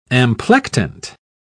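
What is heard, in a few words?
"amplectant", British English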